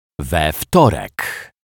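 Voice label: "we Wtorek", Polish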